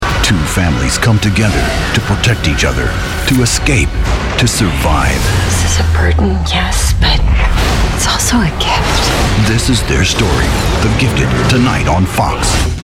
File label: Promo, Older Male, Deep Movie TRAILER Male Voice Over; Television Spots; Netowrk Promo - The Gifted On X